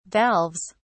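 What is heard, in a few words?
"valves", British English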